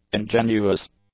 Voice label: "ingenuous", English; adjectives